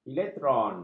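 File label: "elektron", Malay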